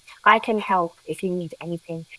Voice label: Voice Acting es

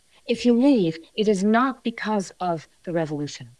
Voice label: Voice Acting es

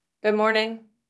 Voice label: Voice Acting es

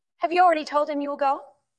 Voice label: VoiceActing es

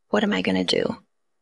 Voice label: VoiceActing es

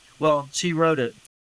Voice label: Voice Acting, es, M

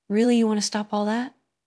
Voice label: Voice Acting es

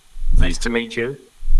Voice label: Voice Acting, es

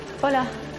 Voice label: Voice Acting es F

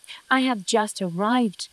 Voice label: Voice Acting, es, F0

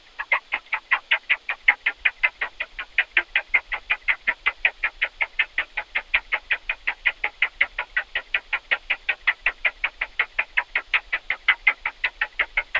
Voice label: Voice Acting es M

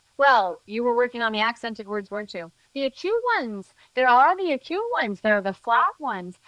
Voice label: Voice Acting, es, F0

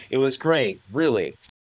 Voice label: Voice Acting, es, M

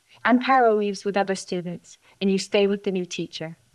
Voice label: Voice Acting es

